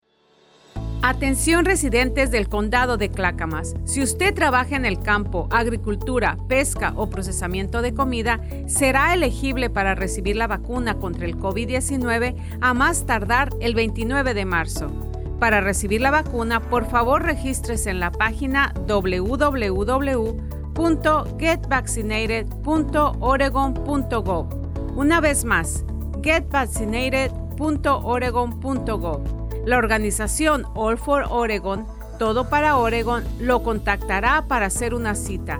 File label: Esp; Clackamas; Vaccine Radio Spots; covid19